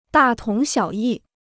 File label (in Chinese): "大同小异", Chinese